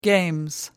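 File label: "games", English, British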